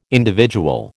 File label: "individual", American